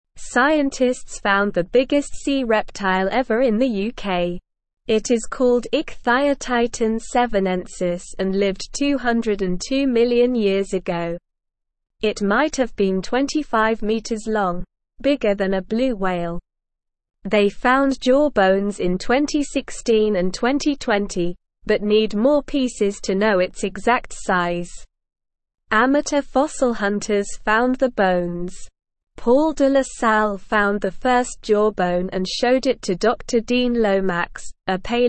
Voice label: English Newsroom Lower Intermediate SLOW Reading; Giant Sea Creature Bones Found in UK